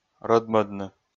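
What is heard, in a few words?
"рӧдмӧдны", Komi, male; kpv audio dict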